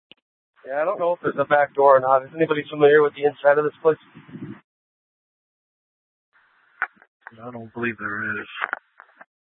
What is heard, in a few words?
Mesa PD Fiesta Dispatch